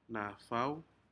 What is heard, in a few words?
"nafao", Aneityum; shellfish